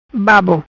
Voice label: "Babo", Macanese; Saliva